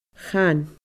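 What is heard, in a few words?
"chan", Scottish Gaelic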